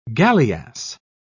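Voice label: "galleass", British English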